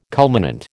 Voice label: "culminant", American